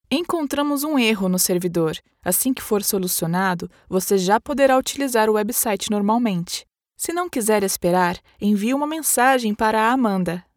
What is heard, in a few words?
error page 500 assistant female brazilian portuguese voiceover